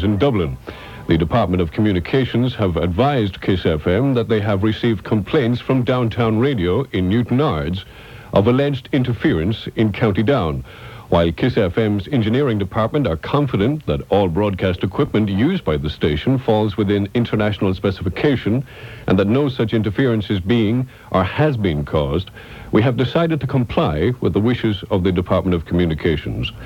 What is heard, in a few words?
close announcement; kiss monaghan